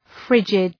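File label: "frigid", English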